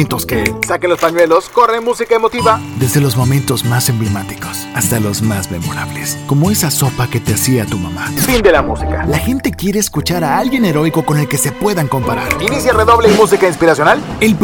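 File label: ESLA General, Latin American Spanish; GoLocalise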